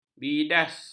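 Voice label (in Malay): "bidas", Malay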